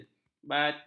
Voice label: "batin", ms